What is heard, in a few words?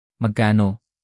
"magkano", tagalog